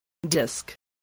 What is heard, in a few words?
"disk", spelling